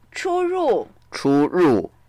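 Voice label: "chu1ru4", Mandarin Chinese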